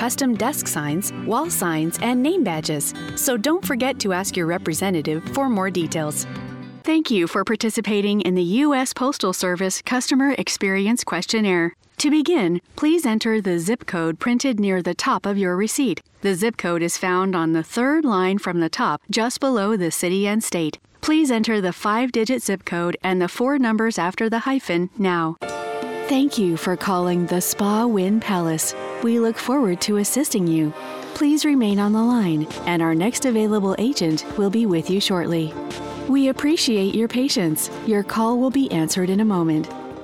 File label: Phone Hold IVR Female